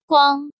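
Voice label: "Guāng", Chinese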